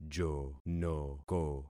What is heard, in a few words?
"jonoko", concat syllables; Affixing experiment